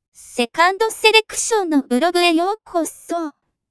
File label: welcome angry